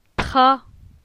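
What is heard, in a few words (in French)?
"Tra", French; phonetique; la syllabe a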